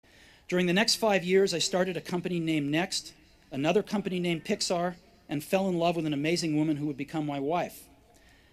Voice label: Commencement Address; Steve Jobs; 2005; Stanford